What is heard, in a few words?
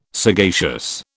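"sagacious", British English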